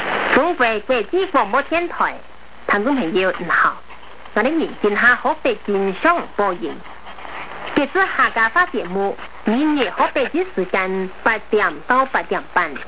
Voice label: cri hakka; Chinese